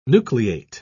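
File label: "nucleate", British English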